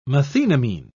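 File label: "methenamine", English, British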